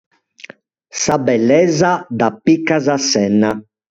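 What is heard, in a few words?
"Apicai", Sardinian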